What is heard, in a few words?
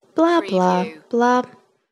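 Woman Blah Blah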